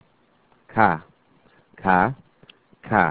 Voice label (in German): "k", German alphabet